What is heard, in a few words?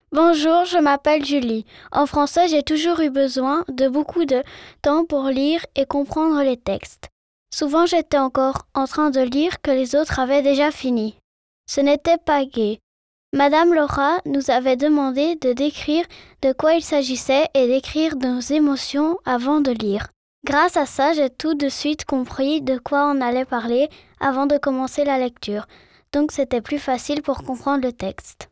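French child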